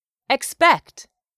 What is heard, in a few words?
"EXPECT", english; 3000 words